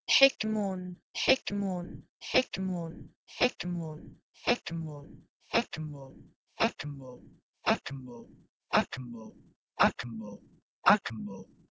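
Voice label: Proto-Indo-European h2ekmon to Ancient Greek akmon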